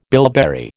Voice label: "bilberry", American